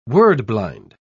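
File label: "word-blind", British English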